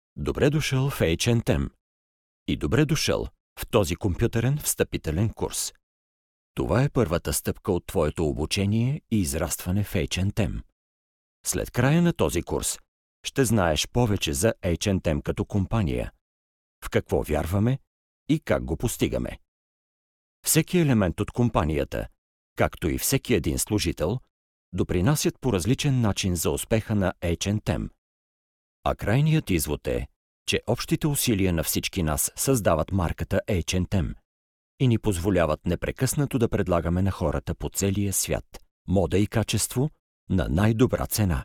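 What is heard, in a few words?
Bulgarian, male, e-learning; voice demos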